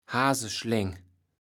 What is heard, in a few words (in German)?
"Haseschleng", Platt